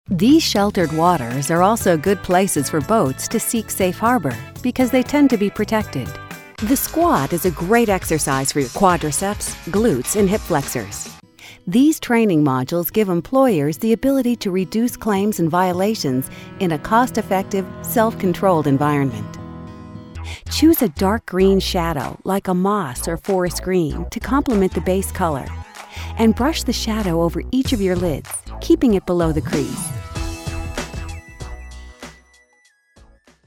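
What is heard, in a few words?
English American female voice eLearning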